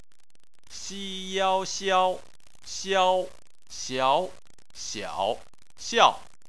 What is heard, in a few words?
"xiao", chinese